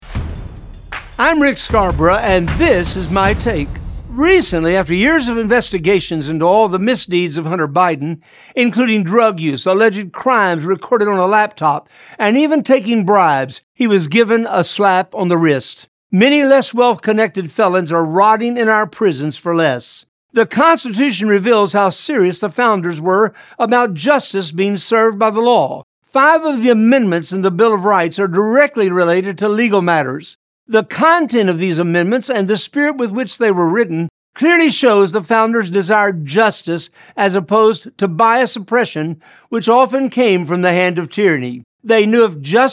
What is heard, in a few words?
Radio Spot; Hunter Biden